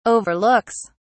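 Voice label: "overlooks", British English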